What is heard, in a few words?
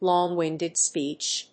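"long-winded speech", English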